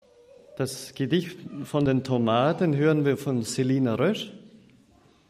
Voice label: Ansage

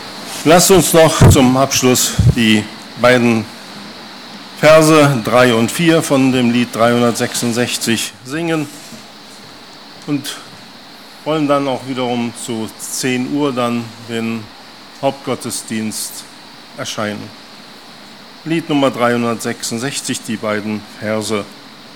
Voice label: Ansage; Lagerversammlung 2018; Gebetsanliegen

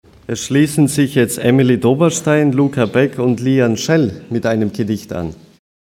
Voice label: Erlaeuterung zum Gedicht

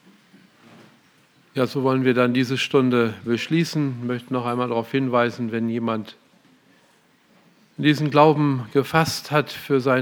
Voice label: Ansage; Lagerversammlung 2018

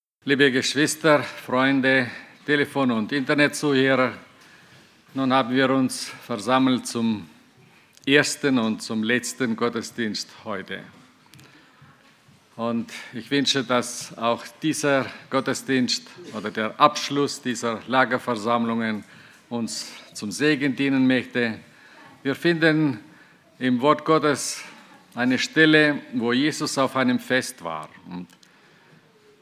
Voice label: Ansage; Lagerversammlung 2018; Dem Herrn zuerst

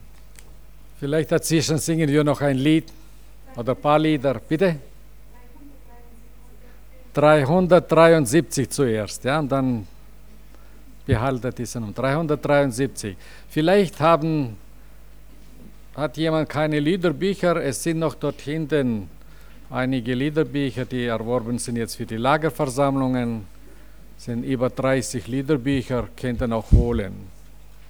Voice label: Ansage; Lagerversammlung 2017; Begruessung und Vorstellung

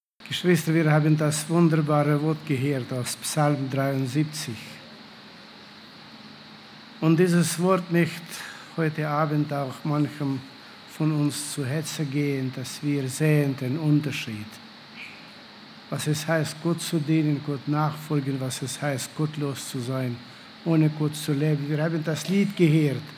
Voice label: Ansage; Lohnt es sich Gott zu dienen

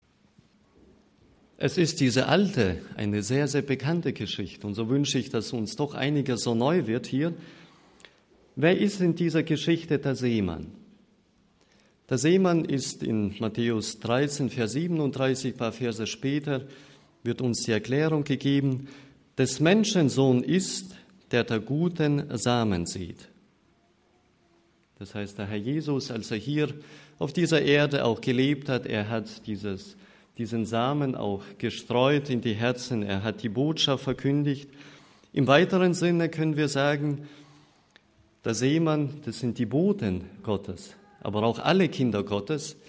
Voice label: Ansage

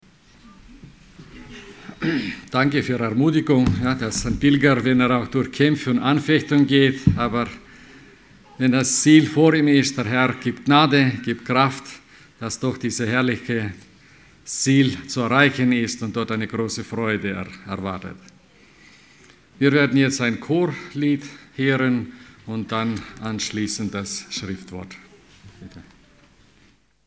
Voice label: Ansage; Das Licht der Welt zeigen

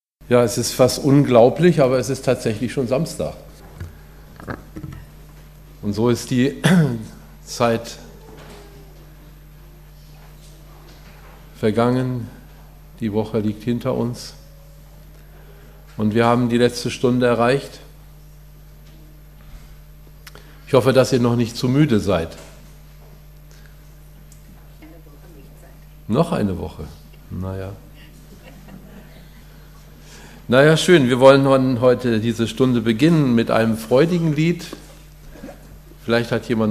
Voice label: Ansage; Die Liebe Jesu an uns

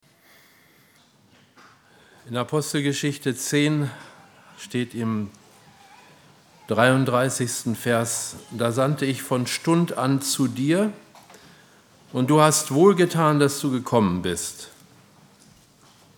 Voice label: Ansage; Lagerversammlung 2016; Göttliche Heilung

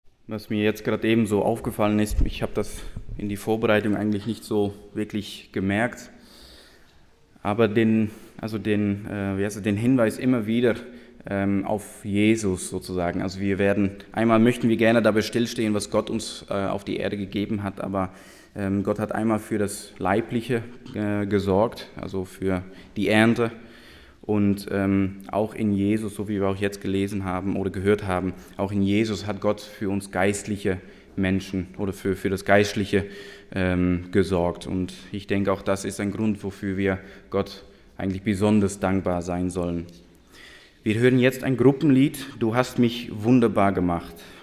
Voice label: Kommentar

